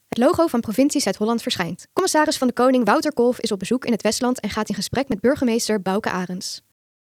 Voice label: voice over; gesprek burgemeester westland